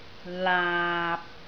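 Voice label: "laap6", Cantonese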